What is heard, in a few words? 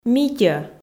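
"митьӧ", Komi, female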